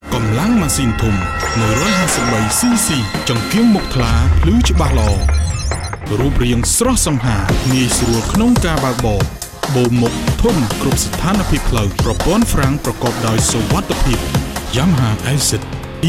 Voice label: KHMER